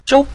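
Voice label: "zhou", Mandarin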